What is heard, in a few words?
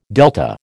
"delta", American